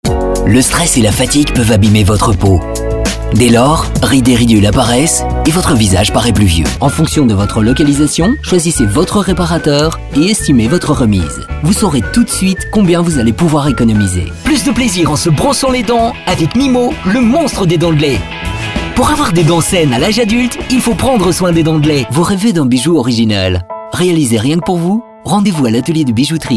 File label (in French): voix off video scribing; demo voix off; poulayot studios